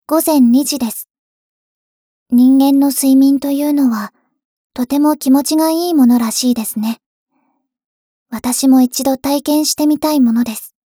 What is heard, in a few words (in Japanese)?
時報ボイス 電脳少女; ボイスコレクション